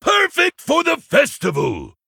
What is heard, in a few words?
Male Tuskarr Play